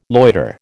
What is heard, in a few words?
"loiter", American